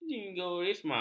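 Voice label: "jingoisme", Malay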